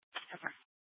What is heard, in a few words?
Sheriffs Office, scanner